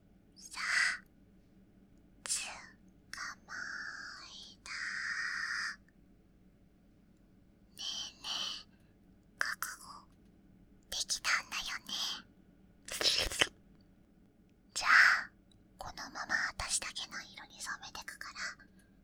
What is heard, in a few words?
Yandere ASMR; Yandere Part2：後半